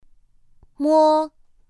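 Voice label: "m", Mandarin Chinese pinyin; pinyin2; pinyinji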